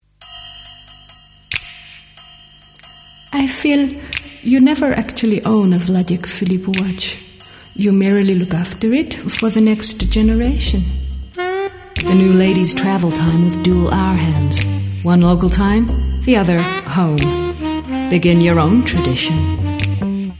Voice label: French American